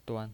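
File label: "duan" Mandarin Chinese, neutral tone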